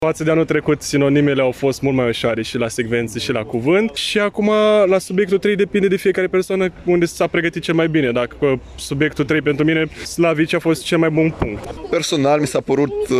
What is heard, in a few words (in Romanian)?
vox elevi; ca vor obtine note mari dupa prima proba a examenului de bacalaureat